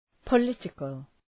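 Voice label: "political", English; {pə’lıtıkəl}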